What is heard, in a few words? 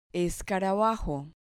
"escarabajo", Spanish